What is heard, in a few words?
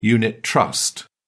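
"unit trust", British English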